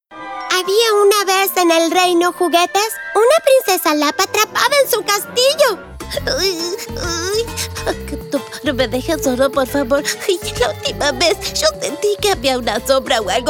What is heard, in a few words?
ANIMATION SPA